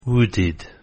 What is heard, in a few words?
"wooded", pronunciation